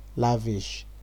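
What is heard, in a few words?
"lavish", Nigerian Pidgin, male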